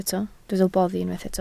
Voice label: "boddi", Welsh